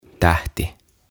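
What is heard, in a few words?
"Tähti", Finnish; Star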